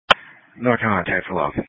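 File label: Police Patrol (Dispatch)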